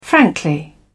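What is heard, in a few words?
"frankly", English, British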